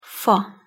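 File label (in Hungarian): "fa" Hungarian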